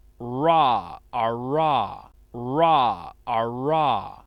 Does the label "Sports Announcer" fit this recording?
No